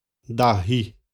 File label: "dahi", xavante; osso